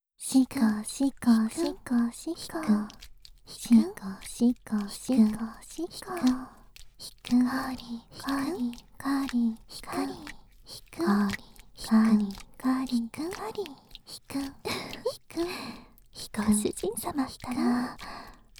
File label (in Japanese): カウントダウンで射精誘導, ASMR; オスイキフィニッシュ